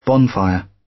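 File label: "bonfire", British; Flora and fauna, agriculture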